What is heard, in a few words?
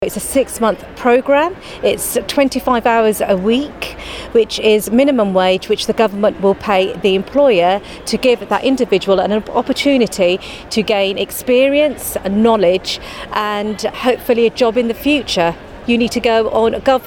news; KICKSTART Crawley